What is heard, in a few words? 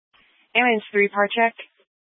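Fire Dispatch, scanner audio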